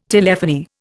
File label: "telephony", Indian